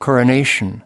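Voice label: "coronation", US English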